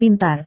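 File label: "pintar", Indonesian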